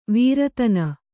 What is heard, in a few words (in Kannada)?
"ವೀರತನ", Kannada